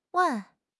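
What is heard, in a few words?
"wen4", Mandarin Chinese; HSK1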